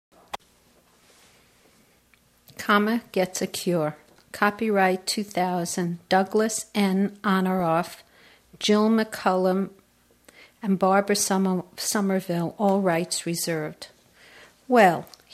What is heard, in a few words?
newyork; speech; dialects; nyc